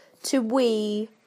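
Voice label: "to wee", British English; PictureDictionary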